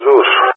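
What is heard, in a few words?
"Zeus", English speech; Allied Flight; AirDefence; Zeus (ZU-23-4); DCS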